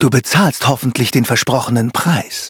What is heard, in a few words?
victory, de; cookierun